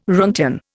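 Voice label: "roentgen", Indian English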